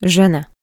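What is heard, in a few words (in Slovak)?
"žena", Slovak; Logopedicka Platforma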